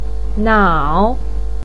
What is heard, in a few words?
"nao3", Chinese pinyin voice